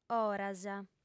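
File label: "oras", female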